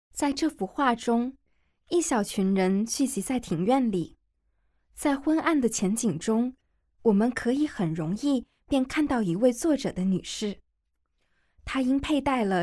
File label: Mandarin, audio guide; g9-botticelli-to-van-gogh